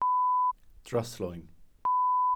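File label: "dryslwyn", Welsh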